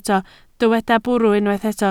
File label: "bwrw", Welsh